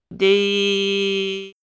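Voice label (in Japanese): "でぃ", Japanese syllable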